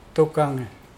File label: big lizard, Mokilese